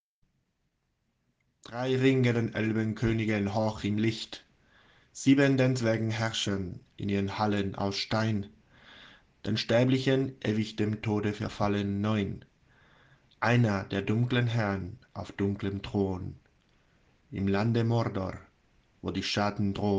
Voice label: Poema anillo Alemán

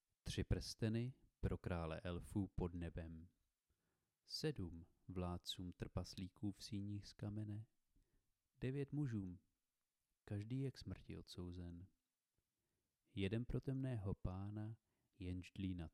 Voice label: Poema anillo Checo

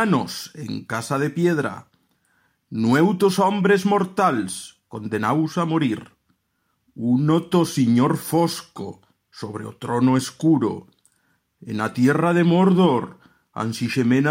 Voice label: Poema anillo Aragones

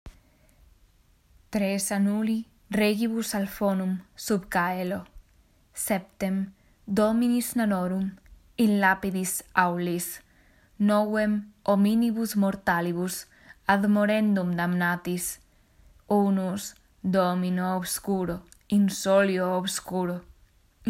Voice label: Poema del anillo Latin

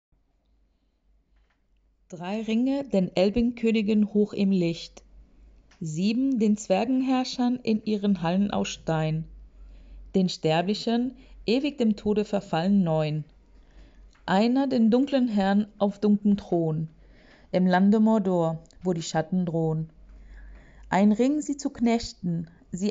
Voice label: Poema anillo Aleman; traduccion Margaret Carroux

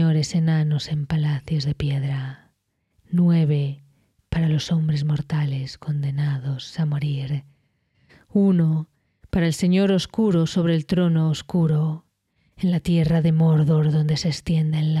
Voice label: Poema anillo Espanol susurrado

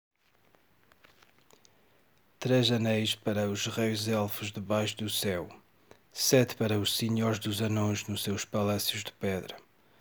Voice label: Poema anillo Portugués

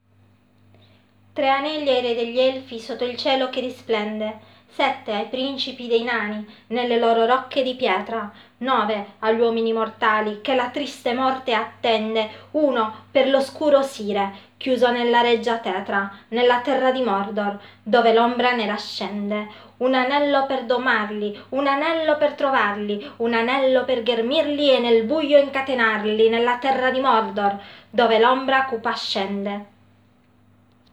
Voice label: Poema anillo Italiano; Poesia dell Anello

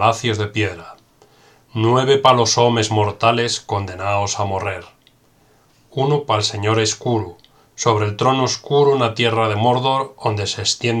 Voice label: Poema anillo Asturlliones

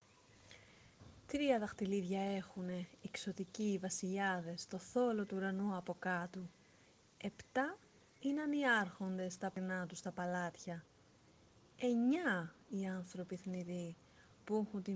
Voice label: Poema anillo Griego moderno fem

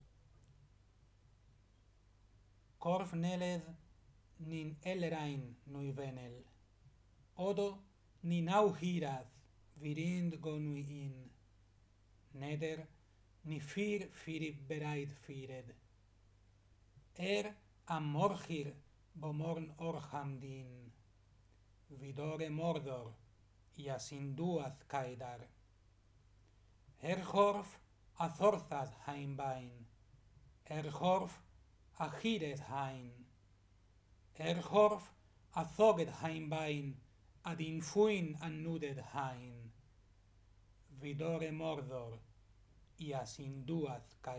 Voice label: Poema anillo Sindarin

